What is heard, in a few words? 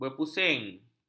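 "berpusing", Malay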